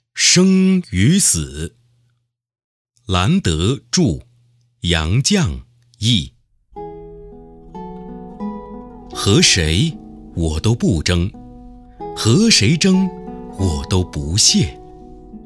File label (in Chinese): 课件朗诵; 生与死